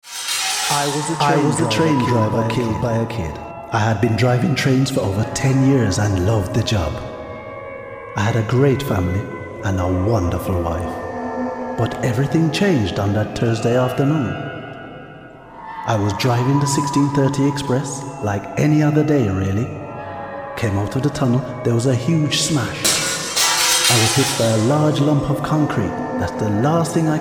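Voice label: English Jamaican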